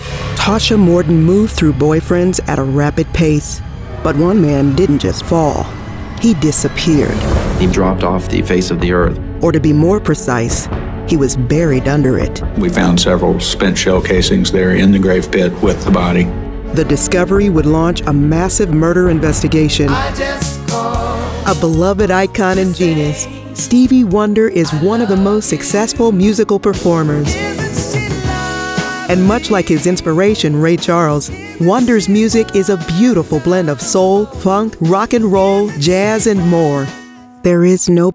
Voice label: TV Narration

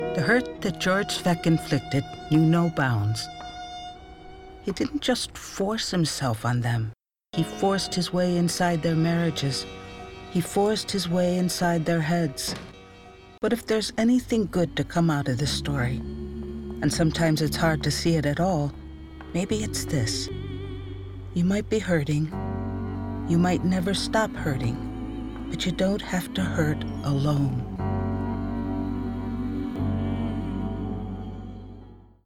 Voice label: In Show Narration

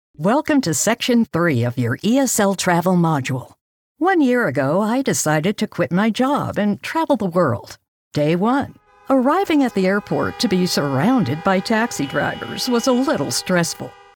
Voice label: ESL

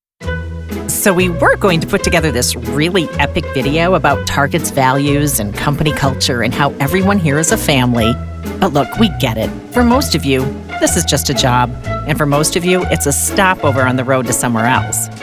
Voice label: eLearning

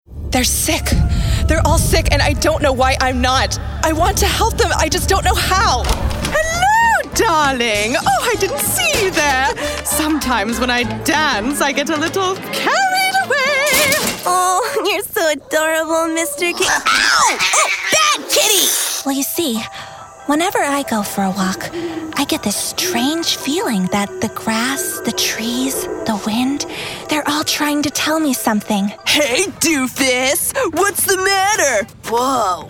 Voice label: Animation and Video Game

